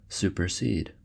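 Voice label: "supersede", US English; pronunciations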